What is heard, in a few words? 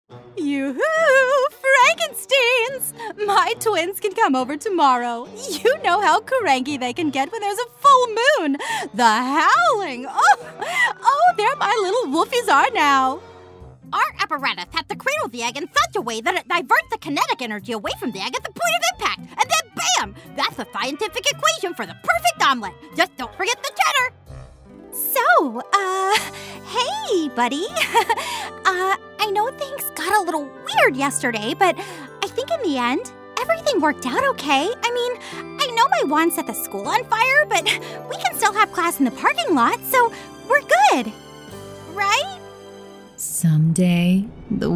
Animation, voiceover